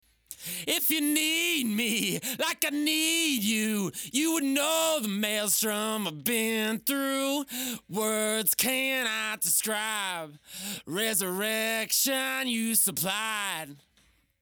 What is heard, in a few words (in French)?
voix effet seul